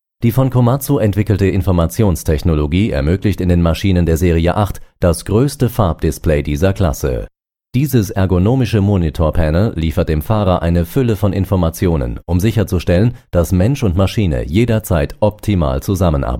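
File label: German, male, corporate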